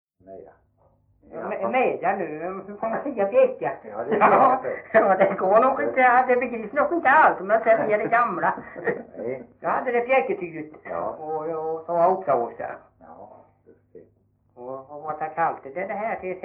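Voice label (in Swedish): Veddige Halland, Svenska sagor dialekter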